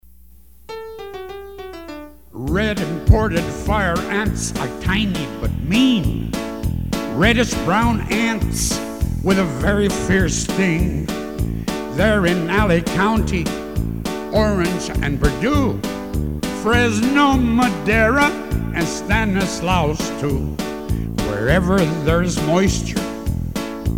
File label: English; PSAs